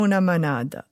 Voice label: “manata”, Moita Verde (nord)

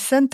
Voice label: "sente", Moita Verde (nord)

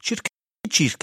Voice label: "circu circhi", Rocca (sud)

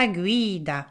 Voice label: "guida a", centre et sud; le guide

